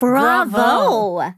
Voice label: VO en